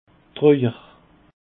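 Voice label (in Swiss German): "treuer", Alsatian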